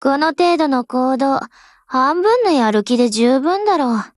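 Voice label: BLACK ACTION, Japanese, Voice; GF Alarm; M249SAW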